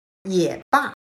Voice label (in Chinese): "也罢", chino